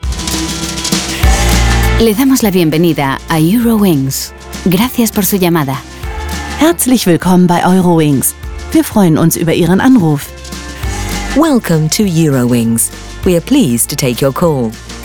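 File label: Spanish, female, ivr multilang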